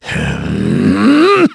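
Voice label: Vox Casting, Korean; Clause ice; kingsraid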